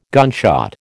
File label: "gunshot", American